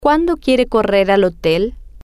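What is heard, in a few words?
Cuando Quiere Correr Hotel, Spanish, female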